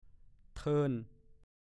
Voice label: "เทิน", ภาษาโคราช; ทับ วางข้างบน ซ้อนข้างบน

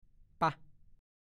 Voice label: "ป๊ะ", ภาษาโคราช

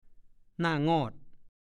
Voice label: "หน่างอด", ภาษาโคราช; หน้างอ หน้าบึ้ง แสดงสีหน้าไม่พอใจโกรธอย่างแสนงอน

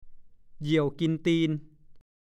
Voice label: "เหยี่ยวกินตีน", ภาษาโคราช; เท้าเป็นเหน็บชา เปี่ยวกินตีน ก็พูด

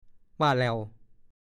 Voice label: "ว่าแล่ว", ภาษาโคราช; เห็นไหมเล่า

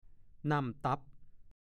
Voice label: "น่ำจิกินอย่าเอาตีนลงคน", ภาษาโคราช; (สำนวน) อย่าทำลายสิ่งกำลังจะใช้ประโยชน์

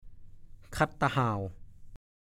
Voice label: "คัดตะห่าว", ภาษาโคราช

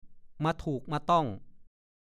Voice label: "มาถูกมาต้อง", ภาษาโคราช; (สำนวน) มาทำให้เจ็บป่วยมักหมายถึงผี

